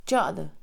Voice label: "esto", Mixe, Mujer; INALI

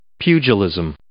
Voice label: "pugilism", English

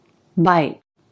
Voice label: "bite", English, Stop T

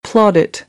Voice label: "plaudit", American English; ɑː - ɔː

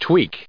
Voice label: "tweak", English

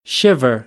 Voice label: "shiver", American English; ɚ - əɹ

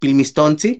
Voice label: gatito pilmistontsin kitten, Náhuatl

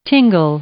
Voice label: "tingle", verb, English

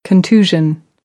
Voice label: "contusion", American; AmericanBritish

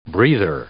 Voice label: "breather", English; noun

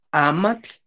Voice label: "amatl", Náhuatl; papel(es); paper(s)

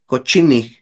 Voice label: "kochinij", Náhuatl; dormilones; sleepyheads

